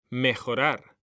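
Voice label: "mejorar", Spanish, male; mekanta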